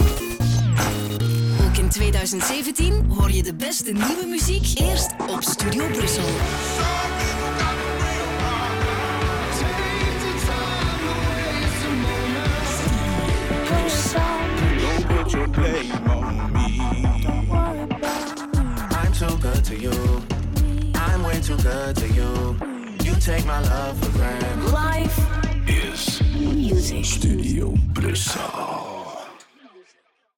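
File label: Music Promo Beatmix with VO; Stubru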